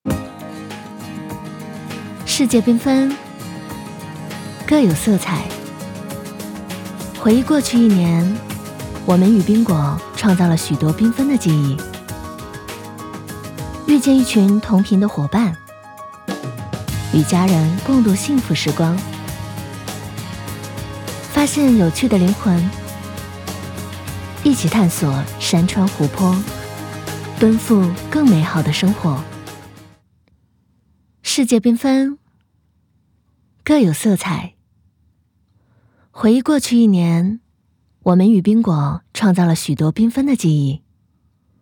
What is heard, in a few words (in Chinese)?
女 广告; 五菱宾果